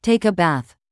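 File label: "take a bath", English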